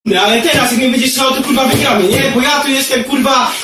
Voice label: angry polish kid

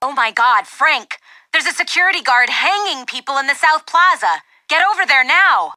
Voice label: transceiver message

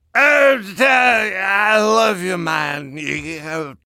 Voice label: gibberish, borracho; demoman

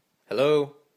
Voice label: gay man hello

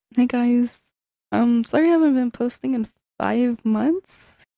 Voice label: hot girl voice

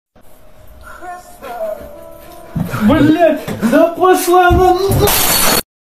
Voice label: angry russian man

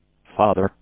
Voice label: father voice

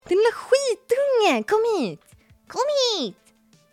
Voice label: swedish

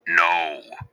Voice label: deep voiced noooo

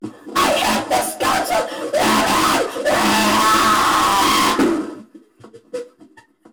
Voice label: kid raging; on fortnite

